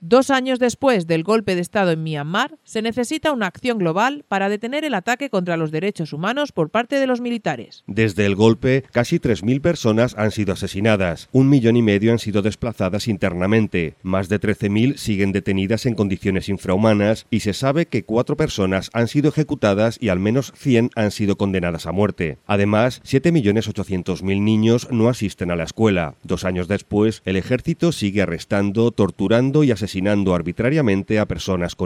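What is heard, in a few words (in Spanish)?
informativo; myanmar violaciones ddhh 2 aniversario golpe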